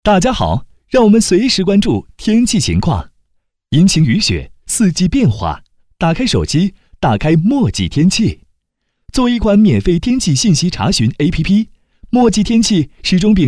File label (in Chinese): 男